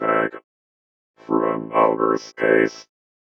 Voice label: VOICEFX ELCTR 140; Techno2